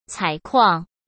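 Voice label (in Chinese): "采矿", Mandarin Chinese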